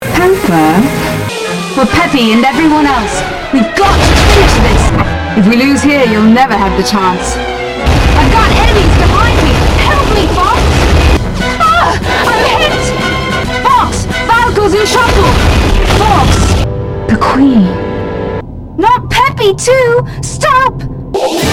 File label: Voice English; Assault; Aparoid Homeworld Inside